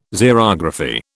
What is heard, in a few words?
"Xerography", British